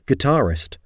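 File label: "guitarist", English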